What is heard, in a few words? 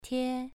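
"tie" Mandarin Chinese, second tone, pronunciation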